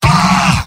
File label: demoman m negative vocalization; tf2; garrysmod; mvm